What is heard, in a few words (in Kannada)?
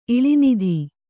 "ಇಳಿನಿಧಿ", Kannada